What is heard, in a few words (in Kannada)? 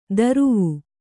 "ದರುವು", Kannada